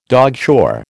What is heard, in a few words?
"dogshore", American